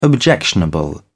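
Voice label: "objectionable", British English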